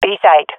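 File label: swat female; Counter Strike; CS:GO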